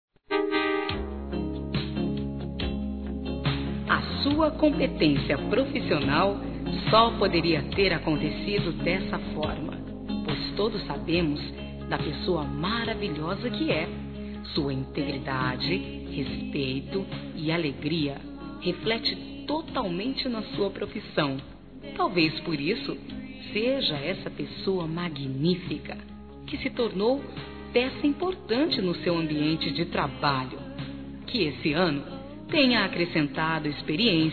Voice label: ANIV. FUNCIONARIO F; Aniversários; Aniversários diversos; fem

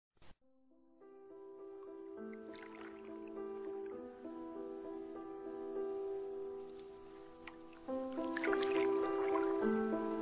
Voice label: PARABÉNS PELO SUCESSO-F, Telemensagens Empresarial; fem; Telemensagens 2017

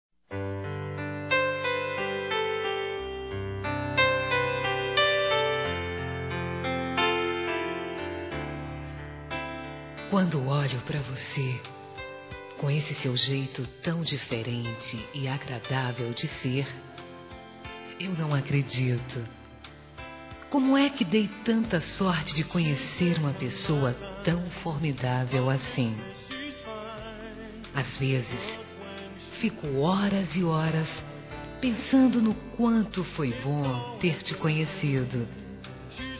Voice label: Inicio de Namoro Feminino; Telemensagens; Telemensagens 2017

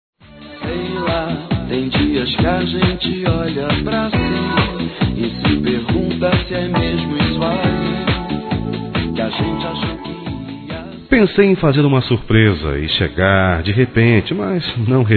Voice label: Estou Voltando Masculino, Telemensagens; Telemensagens 2017

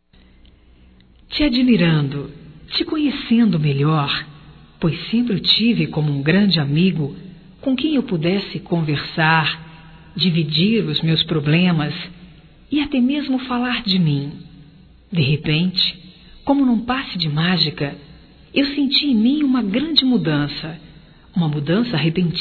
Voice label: AMIZADE COLORIDA(F), Telemensagens, fem; Amizades; Telemensagens 2017

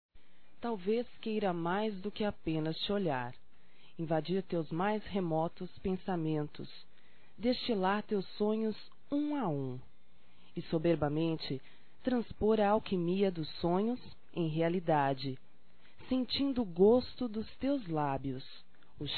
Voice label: Feminino; Amor; Telemensagens 2017

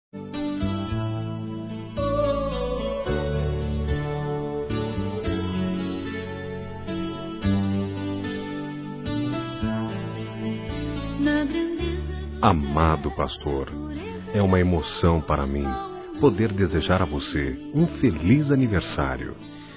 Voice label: Agradecendo Mensagem Masculino

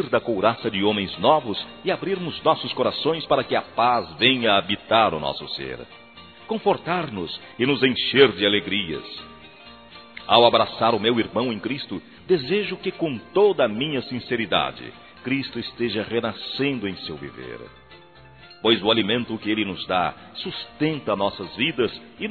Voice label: NATAL NEUTRO M

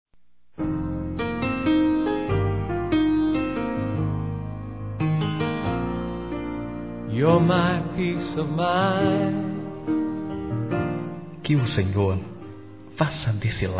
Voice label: Casamento Masculino

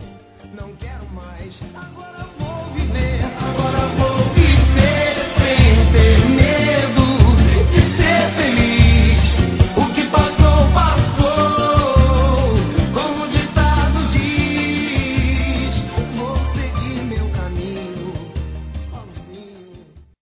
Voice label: Padrinho F, Telemensagens Aniversário; Aniversários; Aniv Familiar

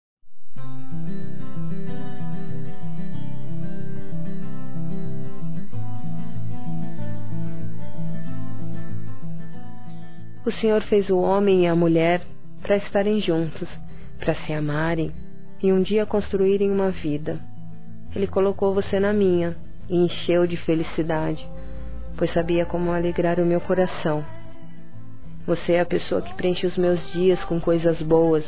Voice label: Evangélico Feminino; Dia Dos namorados; Telemensagens 2017

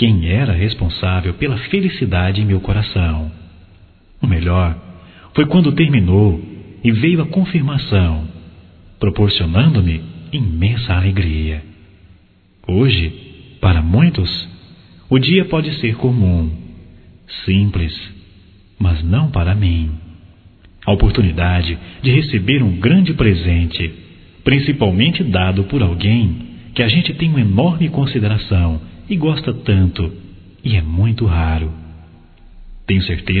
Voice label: Agradecimento Mensagem Masculino

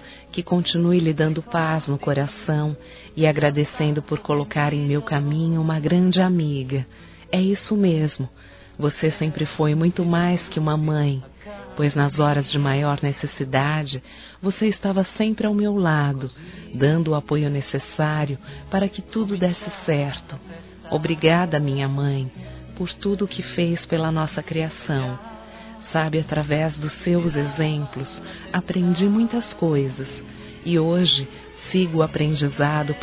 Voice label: Aniversário Mãe Feminino, Telemensagens; Aniversários; Aniv Familiar

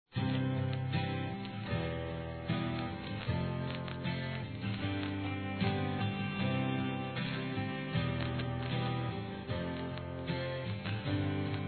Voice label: erótica Masculino, Telemensagens, Saudades

